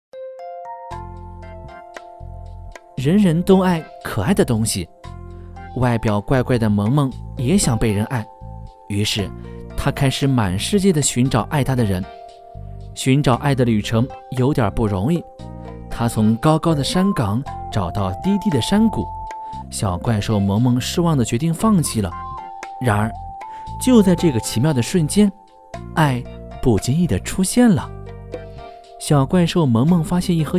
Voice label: 男 儿童读物

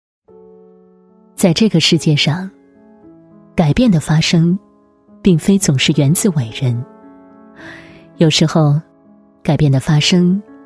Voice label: 女 微电影, 国语女声配音

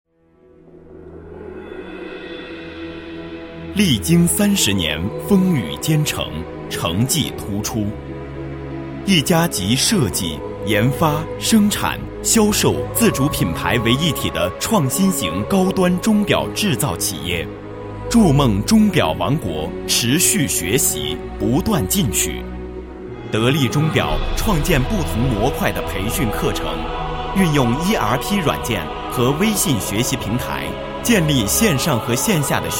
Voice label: 男 专题 大气沉稳, 国语男声配音; 得利钟表

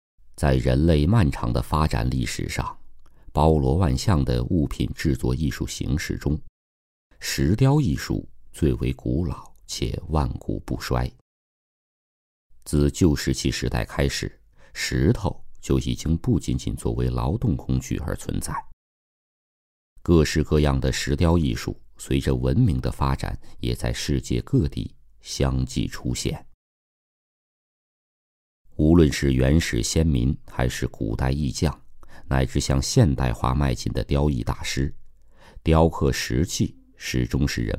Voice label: 男 专题 石雕艺术 娓娓道来, 记录风格

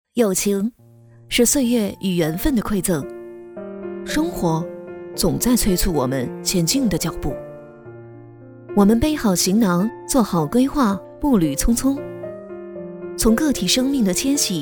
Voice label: 女 微电影旁白; 时间催促着我们的脚步

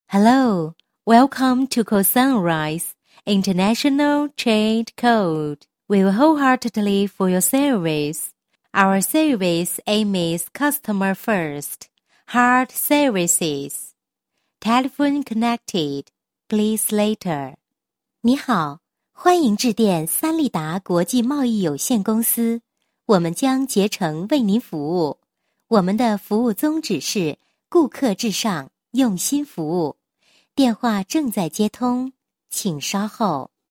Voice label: 女 彩铃 英文; 国语女声配音

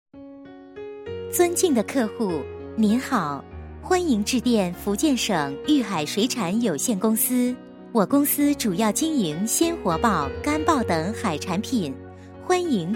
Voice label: 女 彩铃 福建省裕海海产品彩铃, 国语女声配音; 促销

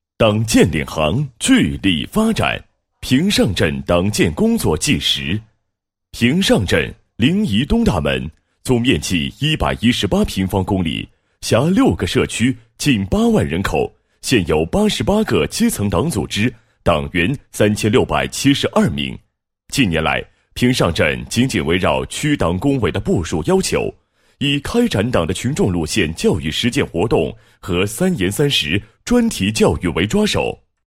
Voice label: 男 党建专题 党建宣传; 某镇党建宣传

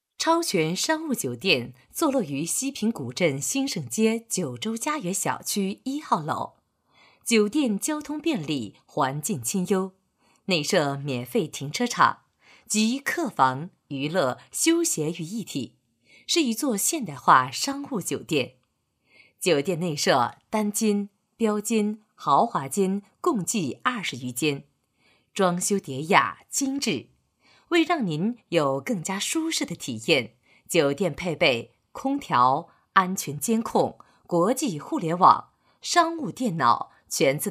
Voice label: 女, 促销, 酒店介绍, 超全商务酒店

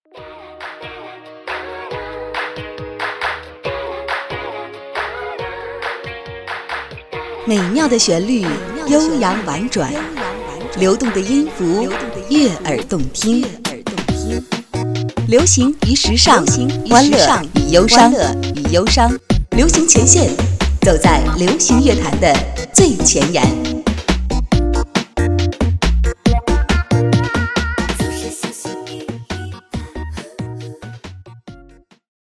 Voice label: 女 栏目头 创意

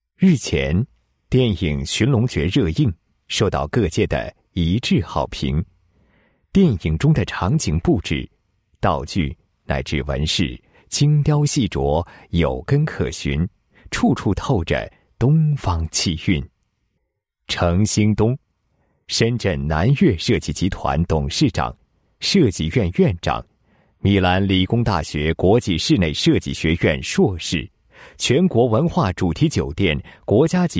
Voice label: 男 电视栏目, 配音; 室内设计 创意三人行

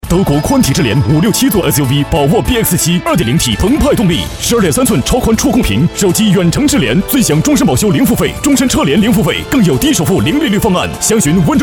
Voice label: 男 广告 激情快速

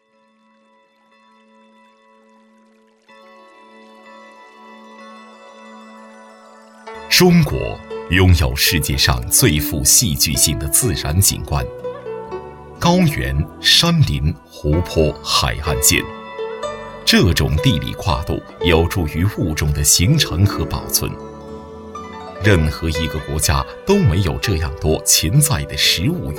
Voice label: 男, 记录片, 舌尖

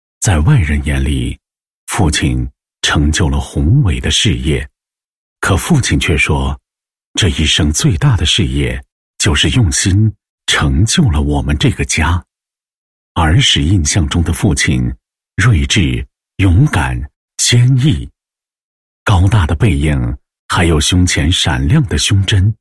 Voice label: 男 自白 温情感谢父亲 广告; 感谢泰禾

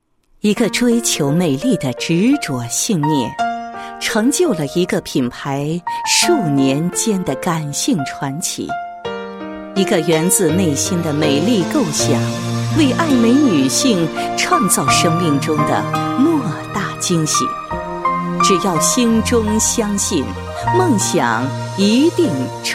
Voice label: 女 人物; 美容院廖总事迹

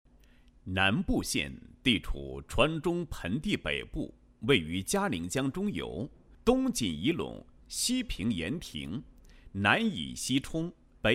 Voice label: 男, 政府工作 就业精准扶贫工作纪实; 南部县人社系统

